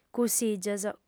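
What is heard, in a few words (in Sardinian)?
"cussìgios", female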